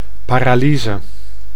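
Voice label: "paralyse", Dutch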